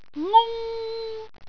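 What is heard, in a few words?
"ngung1", Cantonese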